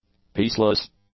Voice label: "peaceless", English; adjectives